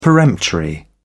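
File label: "peremptory", British English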